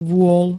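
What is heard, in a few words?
"vôl", Slovak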